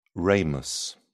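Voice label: "ramus", British English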